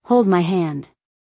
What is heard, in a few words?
"Hold my hand", English; Basic Greetings and Courtesy